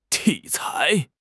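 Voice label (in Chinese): "体裁", Chinese; HSK6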